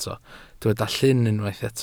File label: "llyn", Welsh